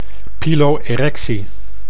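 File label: "pilo-erectie", Dutch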